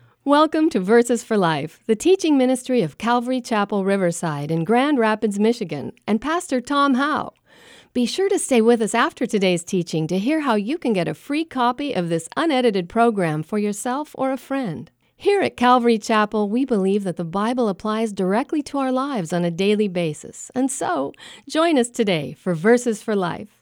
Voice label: Intro (Female); Verses For Life